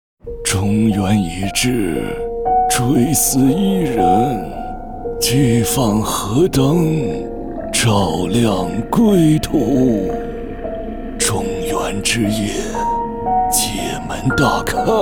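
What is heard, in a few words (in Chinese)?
角色 男 恐怖沙哑 片头; 角色-男27-恐怖沙哑-片头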